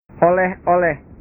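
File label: "oleh oleh", Indonesian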